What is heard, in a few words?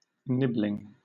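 "nibbling", British English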